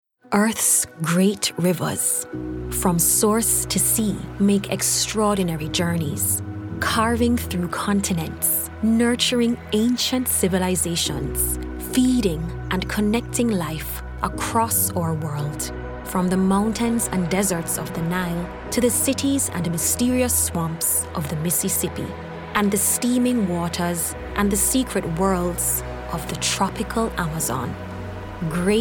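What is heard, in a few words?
English Caribbean, female, narration; accent of the caribbean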